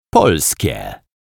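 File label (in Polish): "polskie", Polish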